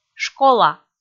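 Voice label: "школа", Komi, female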